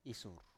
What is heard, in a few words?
"isur", Biak